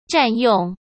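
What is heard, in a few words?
"占用", Mandarin Chinese; chinese-flashcards; hsk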